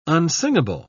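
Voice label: "unsingable", British English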